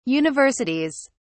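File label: "universities", English, British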